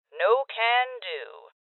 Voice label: Voices Refuse Female